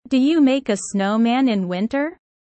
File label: low